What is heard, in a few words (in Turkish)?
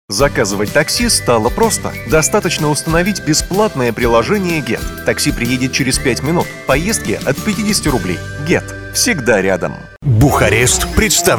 Ukraynaca Erkek, ukraynaca seslendirme; erkek sesler